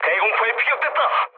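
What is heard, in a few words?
Korean damaged aa mood low, speech; War Thunder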